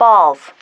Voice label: "balls", female